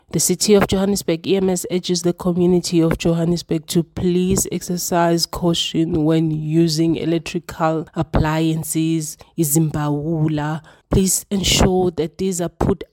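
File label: English; Fire CBD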